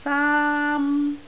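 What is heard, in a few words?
"saam1", Cantonese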